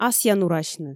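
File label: "асъянурасьны" Komi, female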